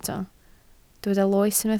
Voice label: "loes", Welsh